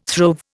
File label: "throve", Indian